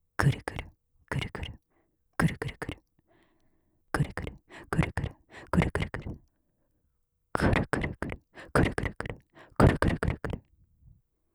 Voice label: 上官 クルクル ふつう; オノマトペ

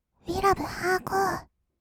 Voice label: うぃらぶはーこー, kawaii voice; 音ゲー

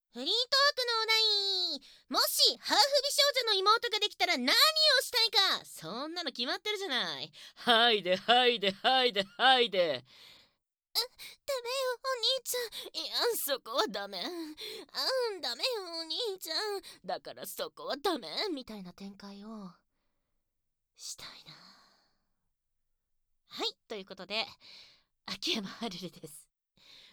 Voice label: フリートーク; ASMR

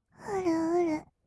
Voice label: "うるうる", kawaii voice; ASMR; 色々なワンショット; 1200 kawaii voice!!

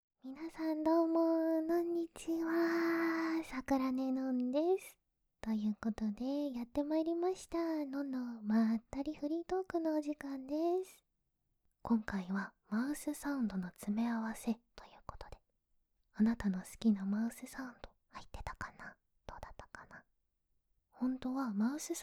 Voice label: まったりフリートーク

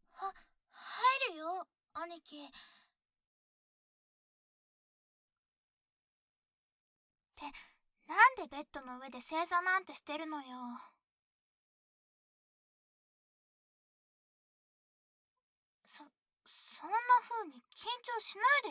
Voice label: 膝枕と耳かきのリフレ SEなし; お兄ちゃんに膝枕と耳かきのリフレ♪

